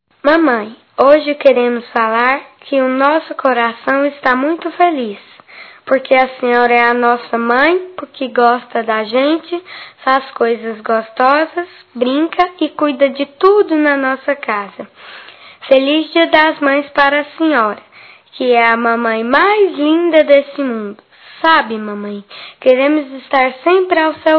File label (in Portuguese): Mãe infantil plural, masc; Dia das Maes; Telemensagens 2017